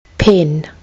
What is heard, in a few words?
"pen", kiwi english